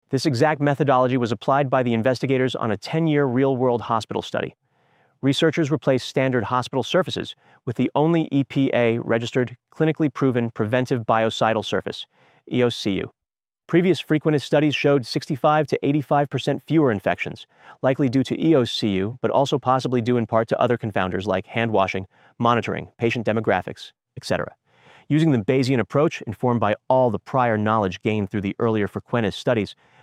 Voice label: narration